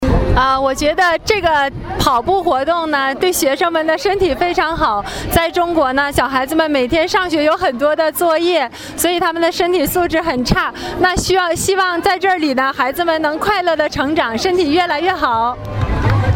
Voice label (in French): coco en mandarin